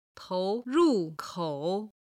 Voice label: "tou2 ru4 kou3", chinese